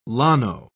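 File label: "llano", British English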